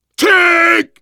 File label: warn tank, voice, biker; Left 4 Dead 2; left4dead2 dlc3; survivor